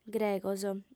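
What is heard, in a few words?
"gregos", Sardinian, female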